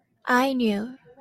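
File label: "Ainu", Japanese, female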